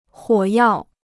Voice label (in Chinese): "火药", Chinese